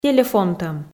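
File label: "телефонтӧм", Komi, female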